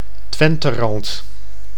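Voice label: "Twenterand", Dutch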